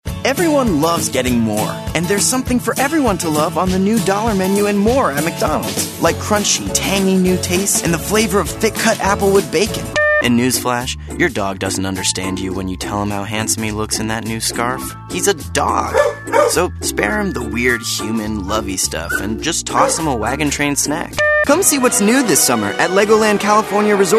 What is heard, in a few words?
english american voice over male commercial